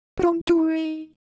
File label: Ewe Shape, ideophones